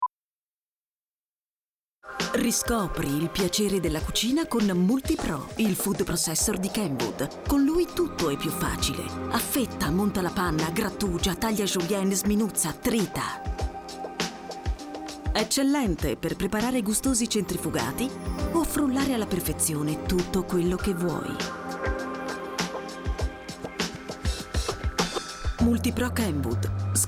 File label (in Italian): VOCE NATURALE; Kenwood